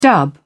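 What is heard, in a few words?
"dub", British English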